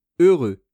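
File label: "heureux", French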